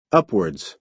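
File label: "upwards", American English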